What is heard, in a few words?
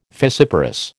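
"fissiparous", American English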